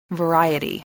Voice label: "variety", English